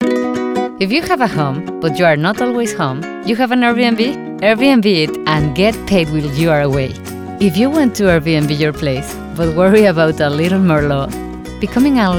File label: Commercial English; Studiobriks One; Neuman U 87; Focusrite Scarlet 2i2 studio 3rd Gen; Mac Book Pro 16GB; Adobe Audition; Source Connect